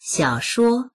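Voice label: "xiao3shuo1", Mandarin Chinese; xiǎoshuō; 小说; 小説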